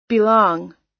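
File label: "belong", US English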